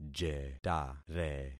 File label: "jetare", concat syllables; Affixing experiment